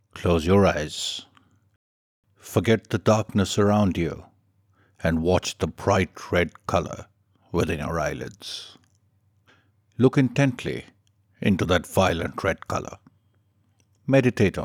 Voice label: guided meditation